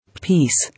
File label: "Peace", English